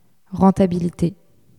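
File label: "rentabilite", French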